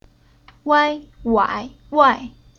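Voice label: "Wai", chinese syllable; Уроки фонетического блока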